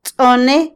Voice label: "maldecir", Otomí, mujer; Religión; Vocabulary; INALI

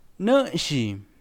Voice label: empujar, Pima, Yécora, Sonora

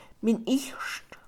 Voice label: lentes, Mixe, Mujer; Moderno; INALI

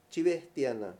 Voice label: extender, Mayo; Acciones básicas y tecnologías

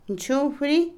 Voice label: Otomí, mujer; yegua; Animales; INALI

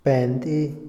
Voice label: trampa, Otomí, hombre; INALI

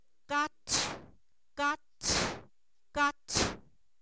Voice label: cuerno, Zapoteco, san miguel albarradas; objetos inanimados

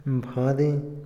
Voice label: ritual, Otomí, hombre; Religión; Vocabulary; INALI

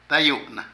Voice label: Habitar, Zapoteco de Petapa; Casa; Vocabulary

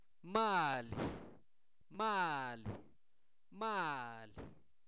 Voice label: comadre, Zapoteco, santo domingo albarradas; INALI; humano

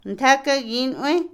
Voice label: mosquitero, Otomí, mujer; Casa; INALI

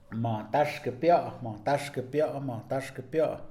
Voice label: "cuadrado", Mixe, hombre; Espaciales

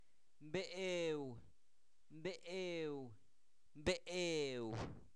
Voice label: Zapoteco Albarradas, santo domingo albarradas; animales; pulga; INALI

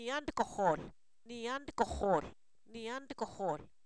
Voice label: frágil, Zapoteco, san miguel albarradas; adjetivos

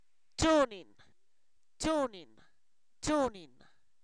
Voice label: Zapoteco, san miguel albarradas; quién; preguntas

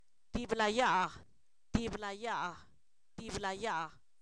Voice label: de una vez, Zapoteco, san miguel albarradas; adverbios

